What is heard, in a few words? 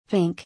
"Pink", English